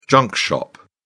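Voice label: "junk shop", British English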